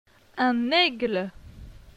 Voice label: "un aigle", francais; phonetique; la graphie gn

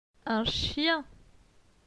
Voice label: "un chien", francais; phonetique; les consonnes

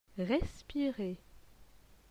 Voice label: "respirer", francais

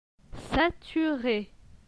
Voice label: "sature", francais

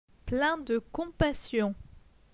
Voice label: "plein de compassion", francais

